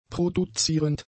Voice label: "produzierend", German; adjectives